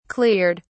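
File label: "cleared", English, uk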